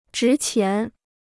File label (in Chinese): "值钱", Chinese